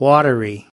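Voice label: "watery", US English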